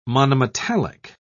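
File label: "monometallic", British English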